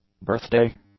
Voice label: "birthday", English; nouns

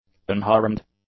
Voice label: "unharmed", english; adjectives

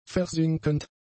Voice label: "versinkend", German; adjectives

